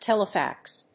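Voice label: "telefax", English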